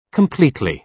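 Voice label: "completely", British English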